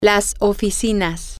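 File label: the offices, Spanish, female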